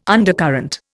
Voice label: "undercurrent", Indian